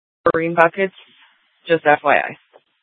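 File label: police dispatch, Scottsdale PD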